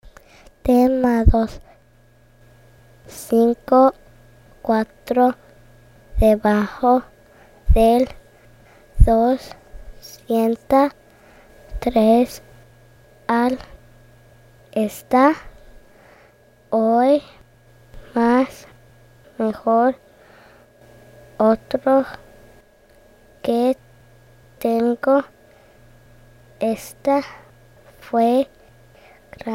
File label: High Frequency Spanish